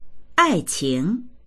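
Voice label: "ai qing", Mandarin; 爱情; 愛情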